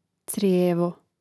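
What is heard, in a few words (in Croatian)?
"crijevo", Hrvatski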